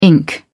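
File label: "ink", British English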